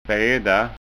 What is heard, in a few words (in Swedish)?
"beda", skånska